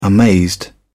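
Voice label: "amazed", English, British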